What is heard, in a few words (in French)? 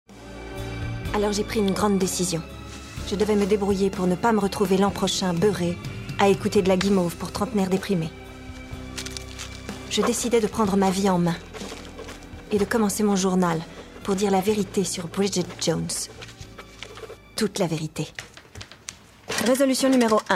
extrait voix off; le journal de bridjet